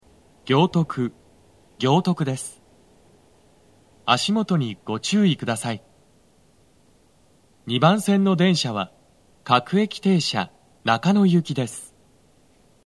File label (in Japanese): 到着放送, 男声, 放送は「各駅停車 中野行」です。Panasonic天井型での収録です。; 2番線 中野・三鷹方面